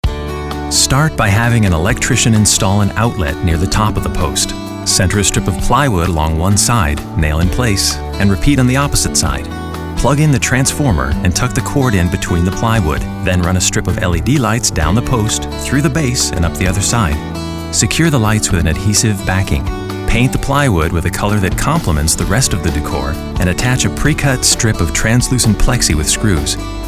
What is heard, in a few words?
Narrative